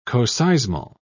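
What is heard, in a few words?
"coseismal", British English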